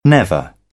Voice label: "never", British; AmericanBritish